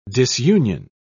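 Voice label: "disunion", British English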